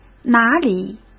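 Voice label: "哪里", Mandarin Chinese; hsk; chinese-flashcards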